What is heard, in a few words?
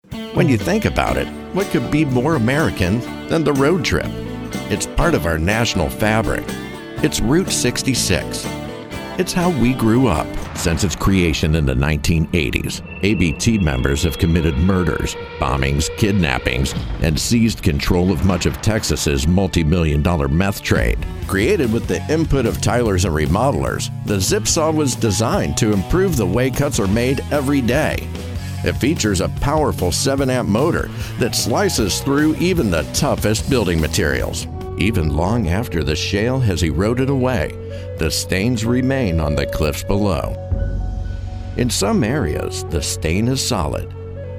Narration